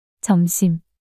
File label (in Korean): "점심" 단어 여자